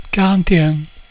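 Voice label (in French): "quarante-un", French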